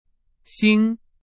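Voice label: Chinese, vocabulary; hsk 3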